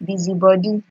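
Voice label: "busybody", Nigerian Pidgin, female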